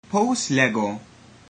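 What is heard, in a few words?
how to say, ancient Greek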